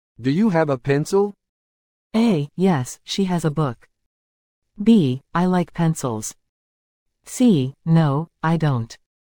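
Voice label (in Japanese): ノーマル, リスニング; You will hear a question or statement and three responses spoken in English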